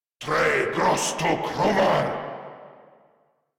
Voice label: Attack, Grineer Male